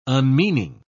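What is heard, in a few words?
"unmeaning", British English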